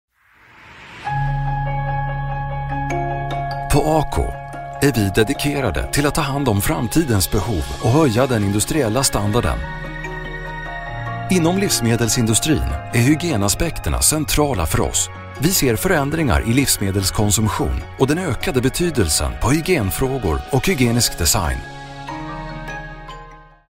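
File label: Swedish commercial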